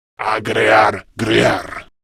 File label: Patrol, Male